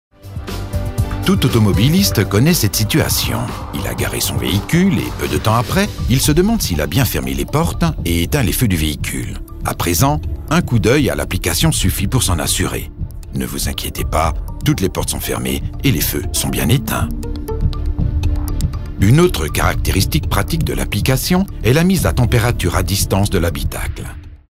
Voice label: Corporate; AUDI